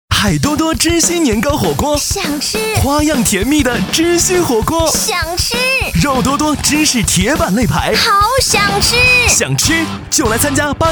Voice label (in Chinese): 男国 夫妻男女广告样音